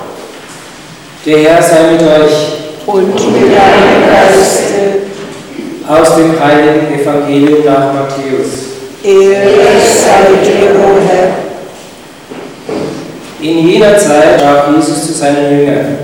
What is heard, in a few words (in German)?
Kirche Lesung Matthaeus